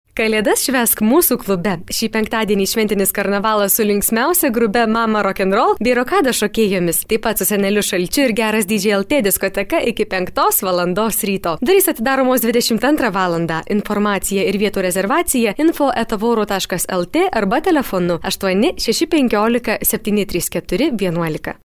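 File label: Lithuanian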